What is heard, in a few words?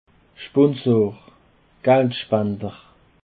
"schponsor", Alsace